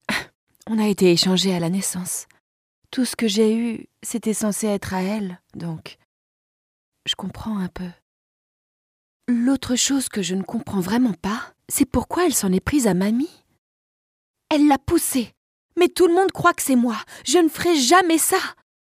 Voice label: locutora francesa french voiceover